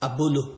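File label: "abolo", Nigerian Pidgin, male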